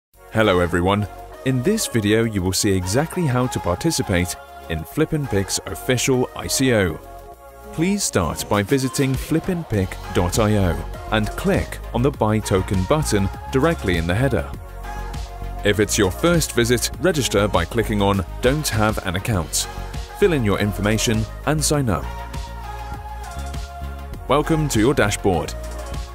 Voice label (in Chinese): 外籍英男 操作解说